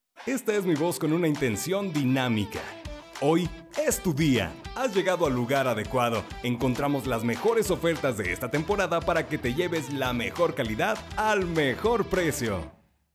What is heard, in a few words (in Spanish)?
Dinamica